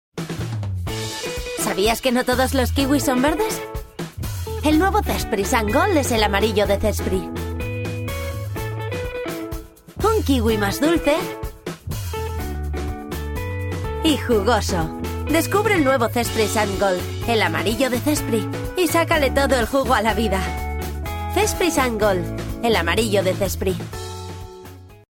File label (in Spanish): spot